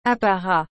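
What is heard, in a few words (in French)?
"apparat", French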